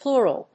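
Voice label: "pleural", English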